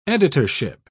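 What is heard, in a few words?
"editorship", British English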